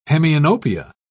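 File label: "hemianopsia", British English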